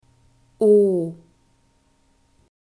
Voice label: "o", German

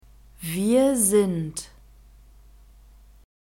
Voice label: "wir sind", German

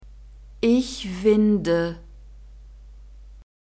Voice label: ich winde, German; verbs present tense irregular

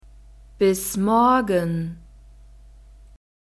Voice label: bis morgen, German

